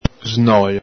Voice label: "snolo", Veneto

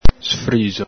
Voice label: "sfrixo", Veneto

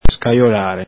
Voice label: "scajolare", Veneto; scajoƚàre; sift; setacciare; el Galepin; sift, sieve

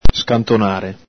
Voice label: "scantonare", Veneto; slip away; sgattaiolare; el Galepin; vb.intr.; sgattaiolare, squagliarsela, svicolare

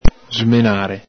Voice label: "smenare", Veneto

